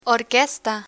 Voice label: "orquesta", Spanish